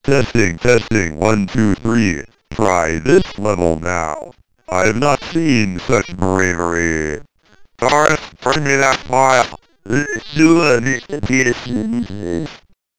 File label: lpc order10